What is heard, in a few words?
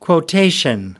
"quotation", us